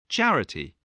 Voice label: "charity", English, voice

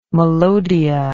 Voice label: "melodia", English

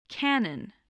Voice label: "cannon", English

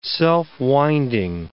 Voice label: "self-winding", English

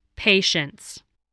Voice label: "patience", English, voice

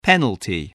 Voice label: "penalty", English, voice

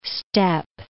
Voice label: "step", English

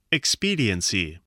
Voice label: "expediency", voice

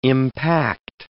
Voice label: "impact", English

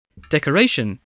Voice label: "decoration", English

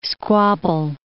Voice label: "squabble", English

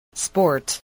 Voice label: "sport", voice

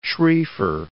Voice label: "Schrieffer", English

